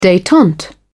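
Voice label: "detente", British English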